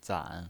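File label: "zan" Mandarin Chinese pinyin voice, third tone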